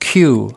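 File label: "cue", US English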